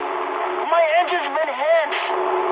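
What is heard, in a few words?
English damaged engine mood high; War Thunder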